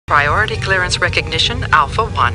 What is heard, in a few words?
Computer Voice; Star Trek; StarTrekLCAR